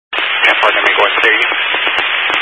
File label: Highway Patrol, scanner; DPS; Dist 06 Casa Grande